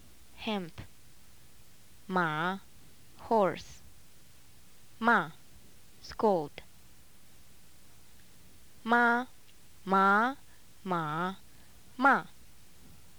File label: Mandarin tones